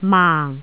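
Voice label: "maang4", Cantonese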